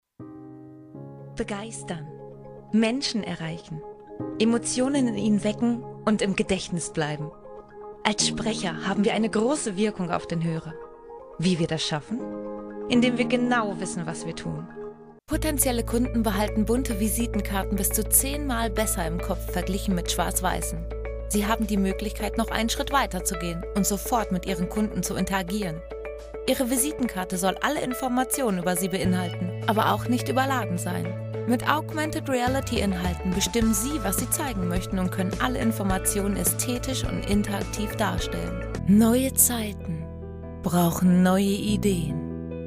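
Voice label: German, female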